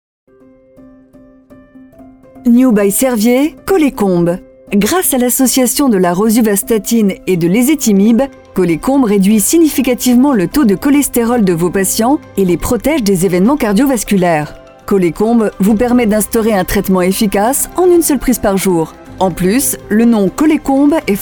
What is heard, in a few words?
French, corporate